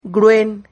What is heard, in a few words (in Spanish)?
"gruen", Silabas